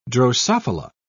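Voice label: "drosophila", British English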